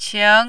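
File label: "qing", Mandarin, second tone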